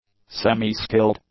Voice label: "semi-skilled", English; adjectives

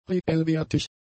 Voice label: "reellwertig", German; adjectives

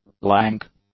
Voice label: "lank", english; adjectives

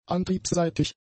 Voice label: "antriebsseitig", German; adjectives

